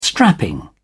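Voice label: "strapping", British English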